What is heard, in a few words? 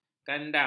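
"kandang", Malay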